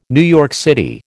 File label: "NYC", American